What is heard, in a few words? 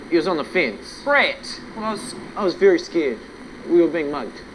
scared